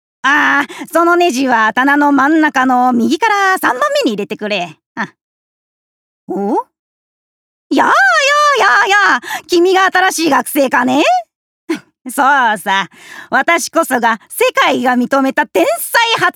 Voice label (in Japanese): クセの強い発明家60代